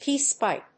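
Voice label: "peace pipe", English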